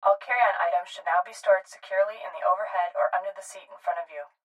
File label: Flight Attendant, Stewardess Message All Carry-On Items Should Now Be Stored; Transportation Aircraft; Pa Announcement